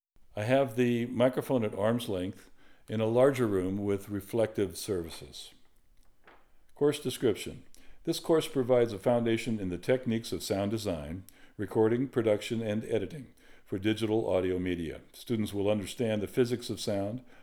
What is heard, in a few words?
Room Test Mid Large Diffuse; Room Sound Demo